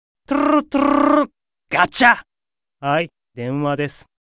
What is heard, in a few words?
call notification voice; Leviathan